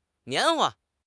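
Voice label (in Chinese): "棉花", Chinese; HSK6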